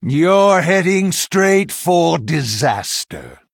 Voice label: Male Human Threaten